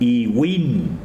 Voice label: "grasa", Tsesungun